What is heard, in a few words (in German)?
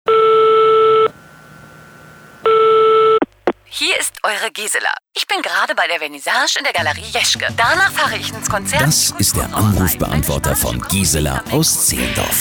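Anrufbeantwortertitel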